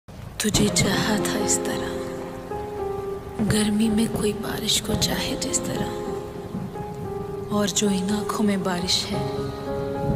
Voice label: Shayari hindi ringtone

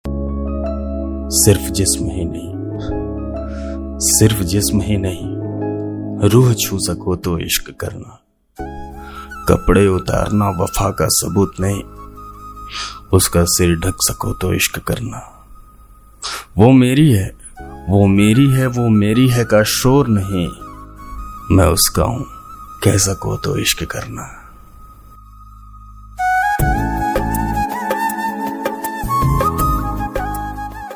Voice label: shayari hindi dialogue ringtone

